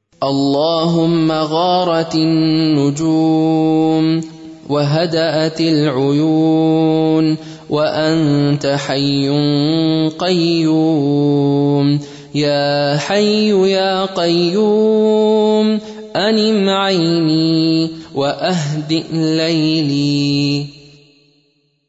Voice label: Dua Urdu, Sleeping; Allahumma Garat Annujum